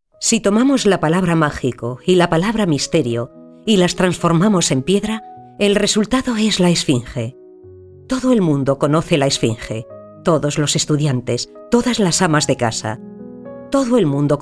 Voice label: Espanol Espana Femenina Adulta

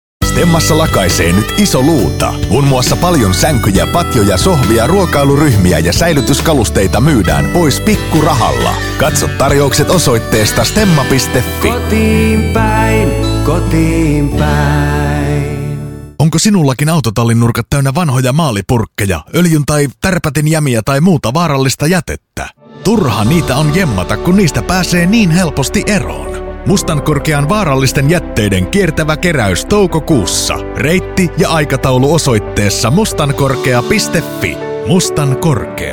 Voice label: Finlandes Finlandia Masculina Adulta

